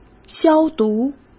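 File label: "消毒", Mandarin Chinese; hsk; chinese flashcards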